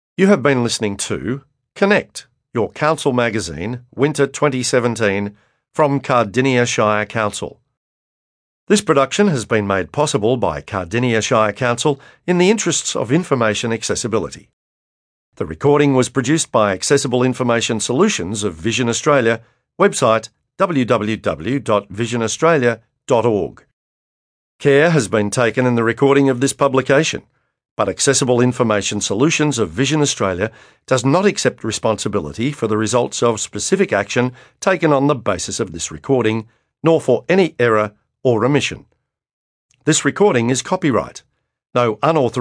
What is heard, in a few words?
closing announcement